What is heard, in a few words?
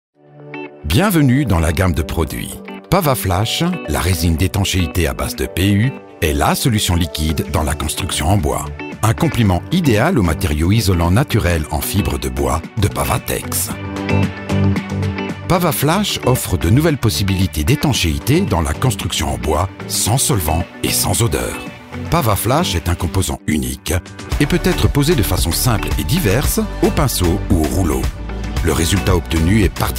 Corporate; PAVATEX